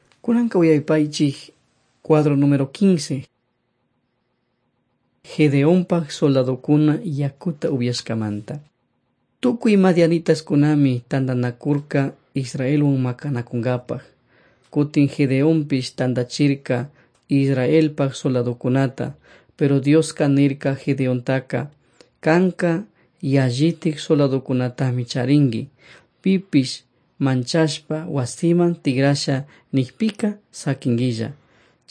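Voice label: quichua chimborazo, drama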